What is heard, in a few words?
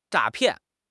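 "诈骗", Mandarin Chinese; HSK6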